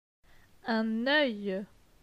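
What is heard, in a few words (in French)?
"Un oeil", French; phonetique; les voyelles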